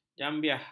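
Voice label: "jambiah", Malay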